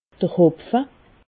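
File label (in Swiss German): "hopfe", Alsatian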